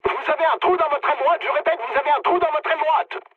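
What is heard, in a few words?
right wing, mood medium, French; War Thunder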